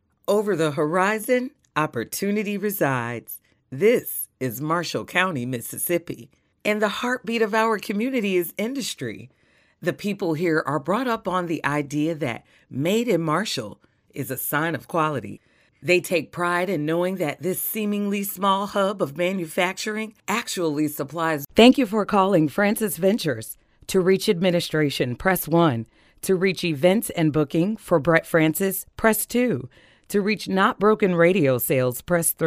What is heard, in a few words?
female english usa e-learning compilation; Devine Voices